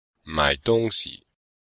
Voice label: "mai3 dong1 xi5", Chinese